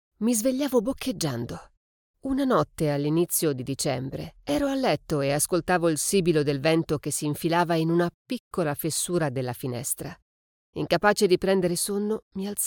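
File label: Italian, female, voice over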